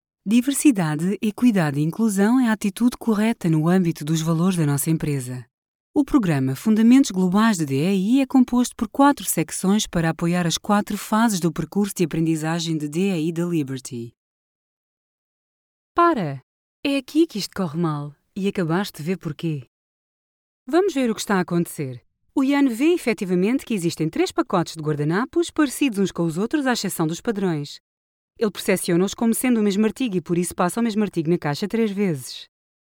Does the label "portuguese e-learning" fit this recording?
Yes